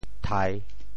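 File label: "thai3", Teochew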